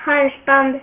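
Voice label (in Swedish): "halsband", Swedish; ktuberling; tdegames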